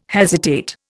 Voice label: "hesitate", Indian

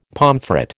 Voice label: "pomfret", American

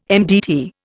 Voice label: "MDT", Indian

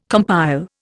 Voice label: "compile", Indian

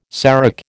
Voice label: "serac", American

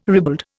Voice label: "ribald", Indian

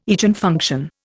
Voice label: "Eigenfunction", Indian

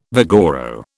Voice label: "vigoro", British

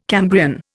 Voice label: "Cambrian", Indian

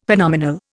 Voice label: "Phenomenal", Indian; Dictionary

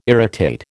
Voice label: "irritate", American

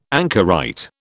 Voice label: "anchorite", British

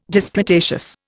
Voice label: "disputatious", Indian

